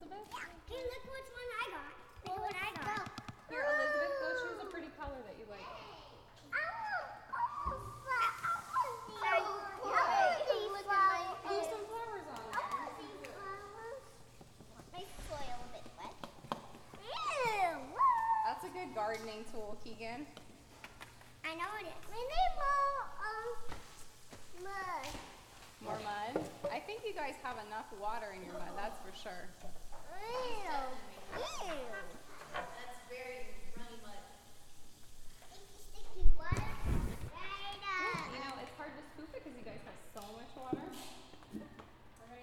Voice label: Kids Voices With Natural Reverb